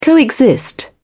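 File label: "co-exist", English, British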